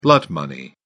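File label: "blood money", British English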